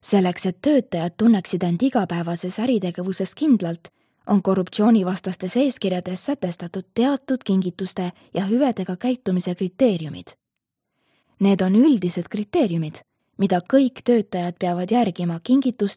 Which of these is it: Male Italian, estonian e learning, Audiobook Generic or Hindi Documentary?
estonian e learning